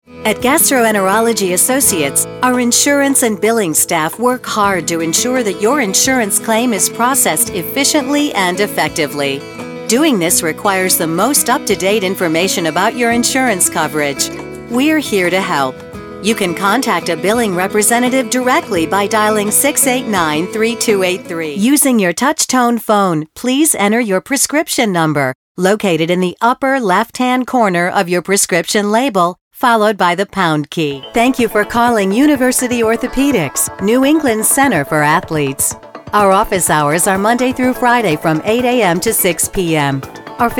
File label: MEDICAL TELEPHONY